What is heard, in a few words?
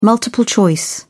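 "multiple-choice", English, British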